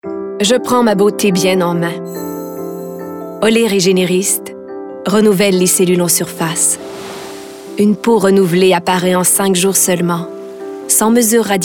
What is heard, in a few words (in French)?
Publicité; Olay Regenerist